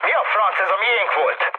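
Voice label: Hungarian player killed ally mood high, speech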